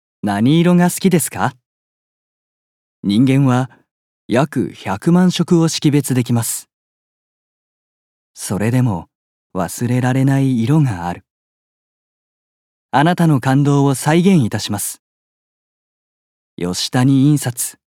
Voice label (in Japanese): 印刷会社CM